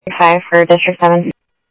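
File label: Sheriff East County, scanner audio trunk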